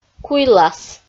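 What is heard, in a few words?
"куйлас", Komi, female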